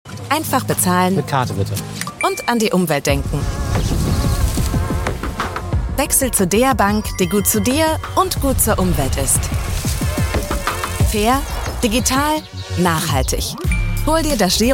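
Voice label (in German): Werbung, Commercial, German, Akzent: Russian; UmweltGiro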